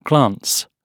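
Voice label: "glance", British English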